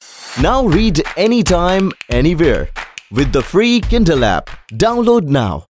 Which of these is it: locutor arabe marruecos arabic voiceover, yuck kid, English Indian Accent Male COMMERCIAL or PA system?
English Indian Accent Male COMMERCIAL